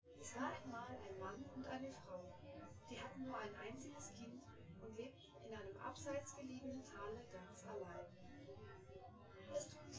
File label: female synthconv